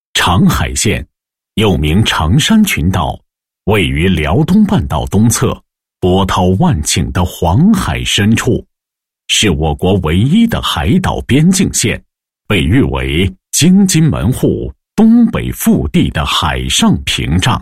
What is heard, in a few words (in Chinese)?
男25-旅游宣传,配音案例; 长海县宣传介绍